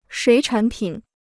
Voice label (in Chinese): "水产品", Chinese